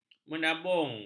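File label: "menabung", Malay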